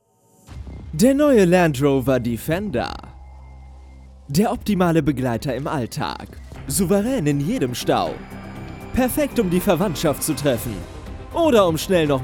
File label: commercial; landrover